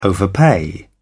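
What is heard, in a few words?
"overpay", British English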